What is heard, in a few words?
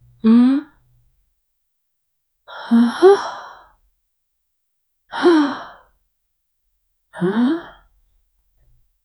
normal female noticing so, reaction